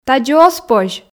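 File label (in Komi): "тадзи оз позь", Komi, female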